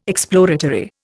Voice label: "exploratory", Indian

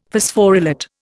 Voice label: "Phosphorylate", Indian; Dictionary

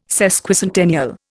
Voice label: "Sesquicentennial", Indian

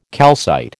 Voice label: "calcite", American

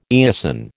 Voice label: "eosin", American

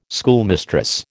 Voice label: "schoolmistress", American

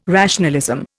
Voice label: "rationalism", Indian

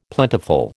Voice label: "plentiful", American

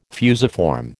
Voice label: "fusiform", American

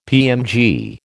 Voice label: "PMG", American

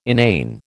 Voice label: "inane", American

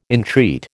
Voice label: "entreat", American

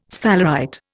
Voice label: "sphalerite", Indian

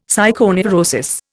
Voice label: "psychoneurosis", Indian; Dictionary

